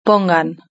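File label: "pongan", Spanish; verb-conjugator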